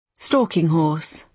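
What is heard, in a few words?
"stalking horse", British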